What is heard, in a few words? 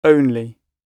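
"only", British English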